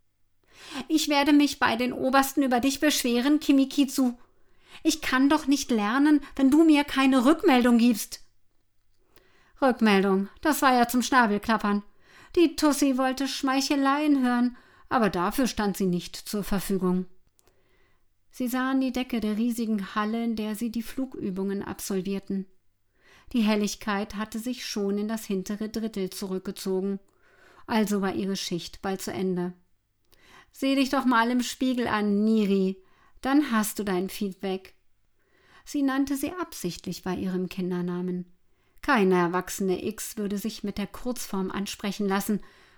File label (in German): Hoerbuch Science Fiction, German; Audiobook (Hörbuch)